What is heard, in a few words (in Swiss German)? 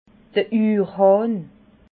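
"urhahn", Alsatian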